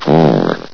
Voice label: "core", vox; Source Engine; bshift

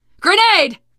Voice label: grenade, teen girl voice; source engine; left 4 dead 2; survivor

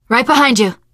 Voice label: im with you, teengirl; survivor voice

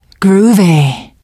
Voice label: generic responses, teen girl, voice; survivor voice

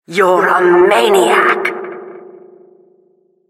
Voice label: announcer killstreak

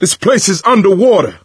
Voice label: misc directional, coach, survivor voice

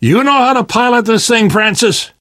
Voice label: seeing big ship, survivor voice; Left 4 Dead 2; Source Engine; video games; namvet

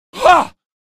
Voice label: shock, male; video games; npc; source engine; episodic; episode 1

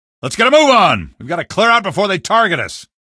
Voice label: move on, male

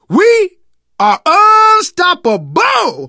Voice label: hurrah, survivor voice; source engine; left 4 dead 2; manager

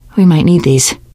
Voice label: take pills, survivor voice teen girl

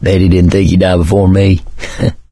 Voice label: survivor mourn, survivor voice mechanic; video game; source engine; left 4 dead 2

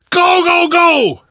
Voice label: emphatic go, survivor voice; source engine; left 4 dead 2; namvet

